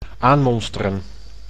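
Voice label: "aanmonsteren", Dutch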